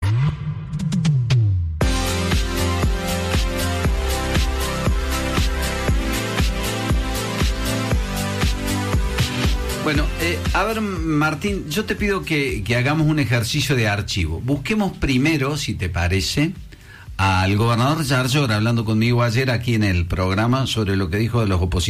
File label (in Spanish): Radio Incendio Forestal; Radio Mitre Cordoba